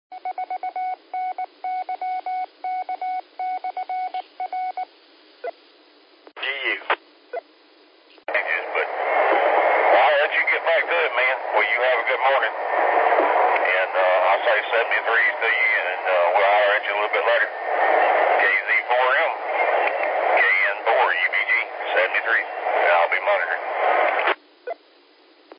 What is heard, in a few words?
qso rec simplex logic